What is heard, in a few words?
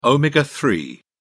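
"omega-3", English, British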